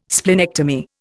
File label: "splenectomy", Indian